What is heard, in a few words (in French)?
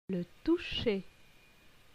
"le toucher", francais